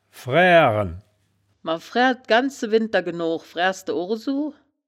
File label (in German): "fraeaeren", wittlicher; fräären; das wittlicher woerterbuch; woerterbuch vertonung